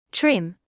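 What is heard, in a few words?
"trim" English, British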